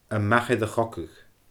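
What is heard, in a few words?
"a mach air a chogadh", Scottish Gaelic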